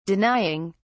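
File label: "denying", British English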